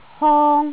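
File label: "hong1", Cantonese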